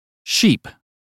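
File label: uk phonetics word "sheep", British; 英语音标发音（剑桥版）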